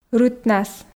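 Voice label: "рытнас", Komi, female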